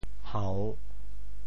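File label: "hau~3", Teochew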